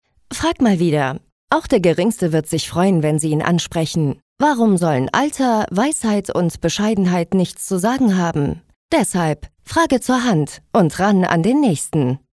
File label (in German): Werbung Neutral